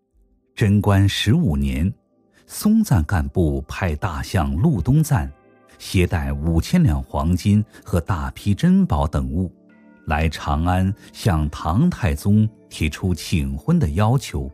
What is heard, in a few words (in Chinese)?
男 纪录片 历史文化